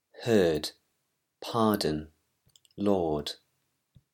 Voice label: english pronunciation rules